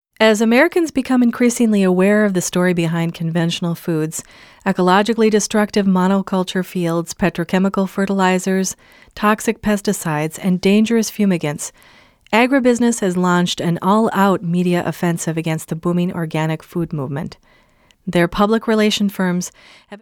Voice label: Presentations Educational, English, North American; VoiceBunny